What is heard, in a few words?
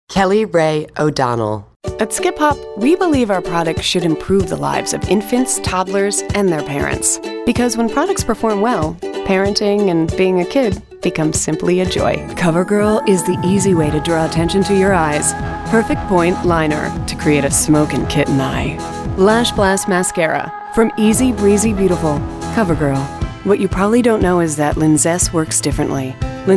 Commercial